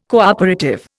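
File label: "cooperative", Indian English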